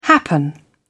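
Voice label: "happen", British English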